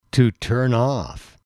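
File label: "to turn off", English, male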